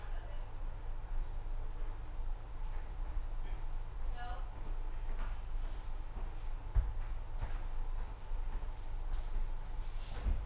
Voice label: Harass French